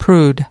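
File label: "prude", US English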